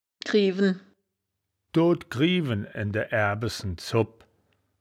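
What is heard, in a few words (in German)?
"griewen", wittlicher woerterbuch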